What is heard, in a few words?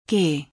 The letter G in German